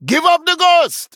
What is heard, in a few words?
Male Dryad Threaten